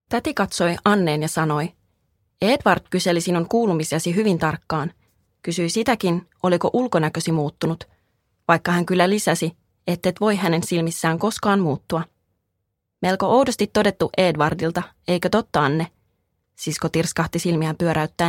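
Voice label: Finnish, Audiobook, female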